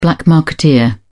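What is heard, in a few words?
"black-marketeer", British English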